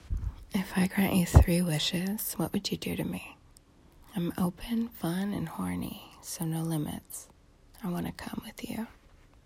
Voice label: voice memo